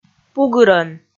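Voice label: "пугырӧн", Komi, female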